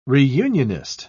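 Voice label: "reunionist", British English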